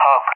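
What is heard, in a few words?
"Hawg", English, Spain; CallSign; DCS; Allied Flight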